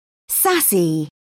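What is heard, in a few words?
"sassy", British English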